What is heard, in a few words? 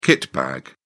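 "kitbag", British English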